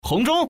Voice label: common man